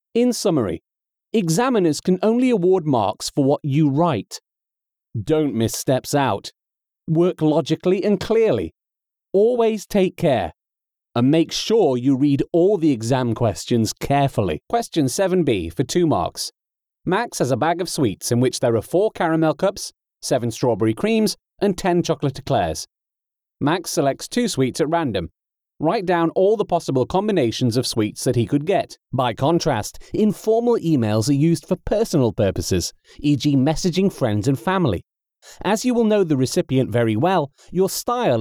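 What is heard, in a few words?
English, male, eLearning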